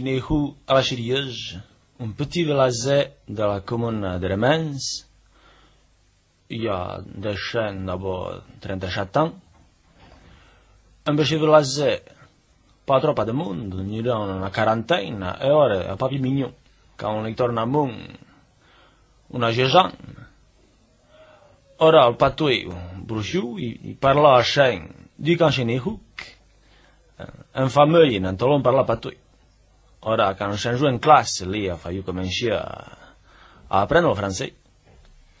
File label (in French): interview patois d'Heremence; patois valaisans; RSR